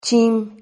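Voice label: "chim", Spanish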